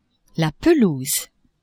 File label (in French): "pelouse", French; maison